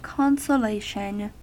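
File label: "consolation", American English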